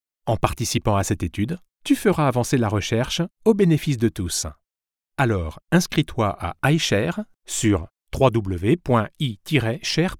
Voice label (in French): voix off; ishare